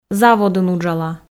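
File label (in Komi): заводын уджала, Komi, female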